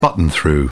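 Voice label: "button through", English, British English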